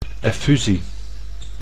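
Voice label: "effusie", Dutch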